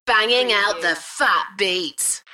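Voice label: UK female, voice drop; Banging the fat beats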